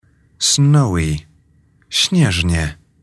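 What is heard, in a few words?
"snowy", English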